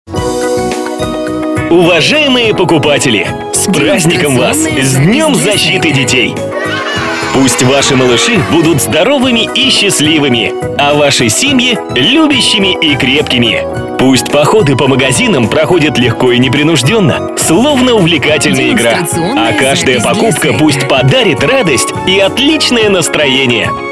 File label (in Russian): Поздравление с 1 июня, универсальное, мужской; День защиты детей